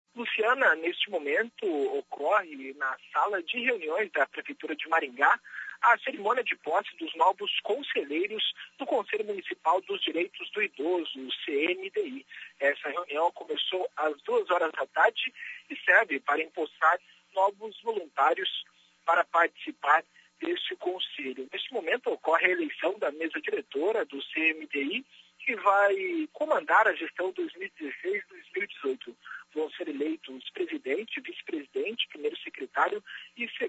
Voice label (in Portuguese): ao vivo